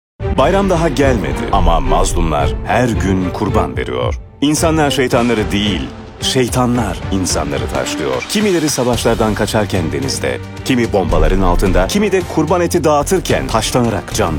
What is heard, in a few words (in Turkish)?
tanitim